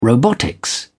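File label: "robotics", British English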